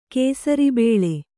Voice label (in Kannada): "ಕೇಸರಿಬೇಳೆ", Kannada